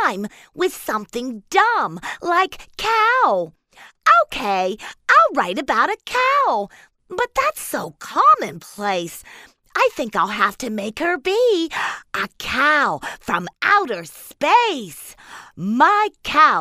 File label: exuberant child's voice reciting dramatic poem